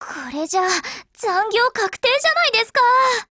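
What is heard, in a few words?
retreat Japanese; AKAlfa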